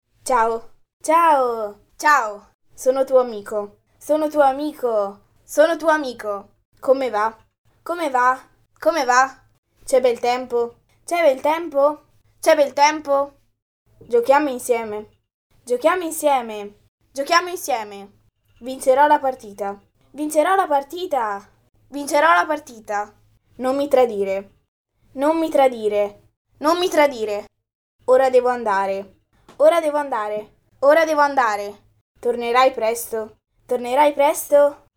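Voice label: it child, Italian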